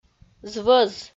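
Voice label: "звӧз", Komi, female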